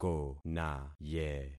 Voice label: "konaye", concat syllables; Affixing experiment

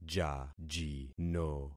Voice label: "jajino", concat syllables; Affixing experiment

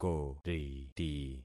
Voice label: "koriti", concat syllables; Affixing experiment

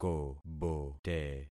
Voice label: "kobote", concat syllables; Affixing experiment

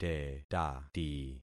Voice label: "tetati", concat syllables; Affixing experiment

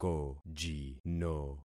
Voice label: "kojino", concat syllables; Affixing experiment

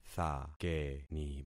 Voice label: "thakeni", concat syllables; Affixing experiment

